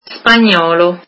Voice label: "Spanish", italian

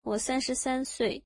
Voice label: Im thirty three years old, mandarin

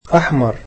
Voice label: "red", egyptian

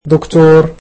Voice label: "doctor", egyptian

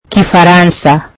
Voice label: "French", swahili

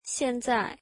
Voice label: now, mandarin

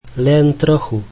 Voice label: Just a little, slovak

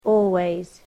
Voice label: "always", english

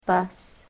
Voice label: "bus", english

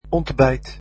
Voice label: "breakfast", Dutch

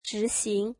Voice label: Go straight, mandarin

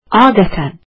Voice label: "usually", azeri

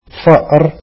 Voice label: "mouse", arabic

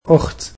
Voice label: "sister", egyptian

